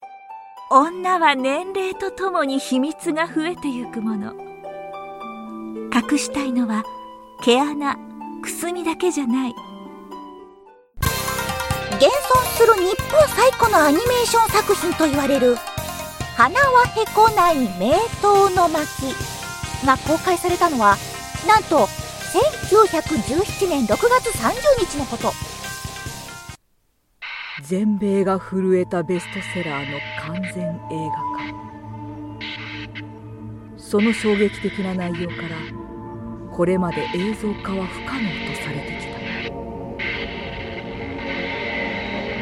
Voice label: japanese commercial; charming

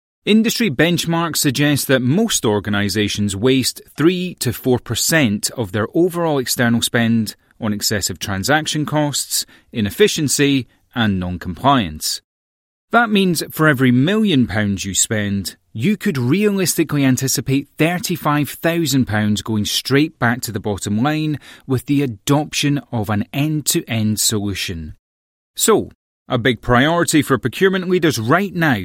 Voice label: english british corporate videos

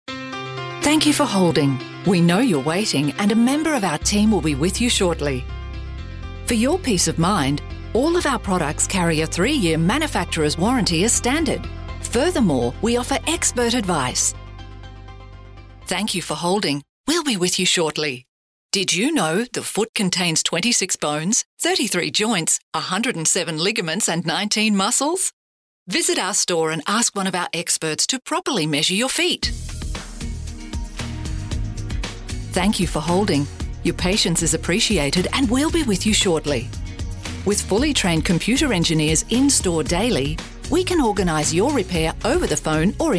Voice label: english australian ivr

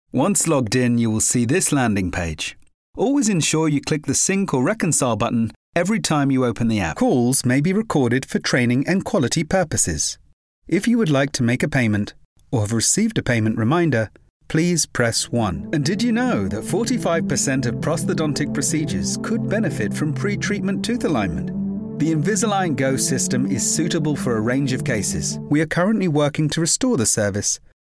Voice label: english british corporate videos

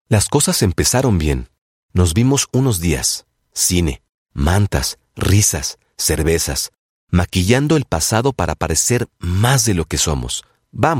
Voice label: spanish latin american audiobooks

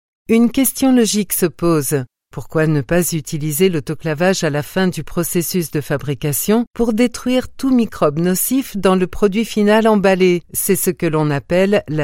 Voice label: french e-learning

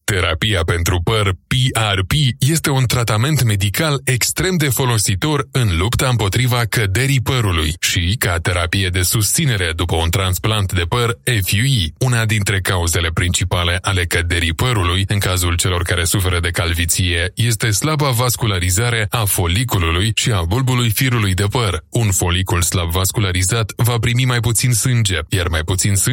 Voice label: romanian corporate videos

